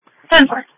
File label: Sheriff Dispatch